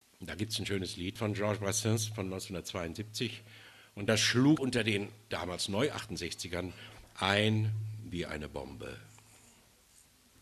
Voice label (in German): ansage; abgesang